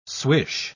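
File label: "swish", English word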